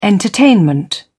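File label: "entertainment", English, British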